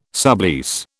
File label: "sublease", British